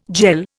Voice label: "jell", Indian